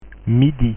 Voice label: "midi" French

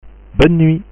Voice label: "bonne nuit", French

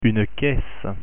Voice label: "caisse" French; pronunciation

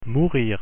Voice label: "mourir" French; pronunciation

